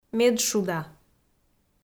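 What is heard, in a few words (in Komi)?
"медшуда", Komi, female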